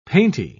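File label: "painty", British English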